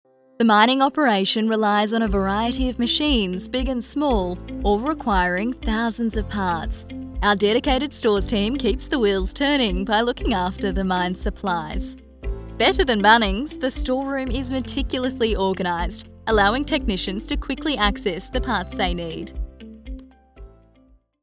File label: Corporate Video